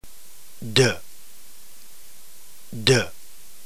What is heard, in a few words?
delta, ntgreek alphabet phoneme